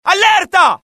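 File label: alert, Italian; mohaa; dialogue; sighted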